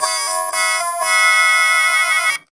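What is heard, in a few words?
effects talk, Funky Vocals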